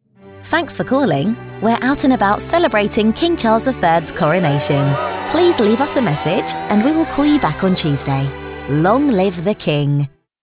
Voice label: playful with music; 8kHz 16bit; Kings Coronation; king charles iii's coronation